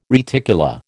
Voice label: "reticula", American